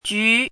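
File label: "jú", Chinese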